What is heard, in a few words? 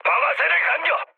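Korean attack position mood low; War Thunder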